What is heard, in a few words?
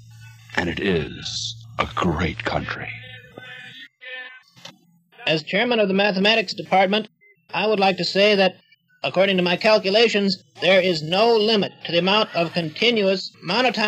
Dorm C Tape Dance Ad; WRCR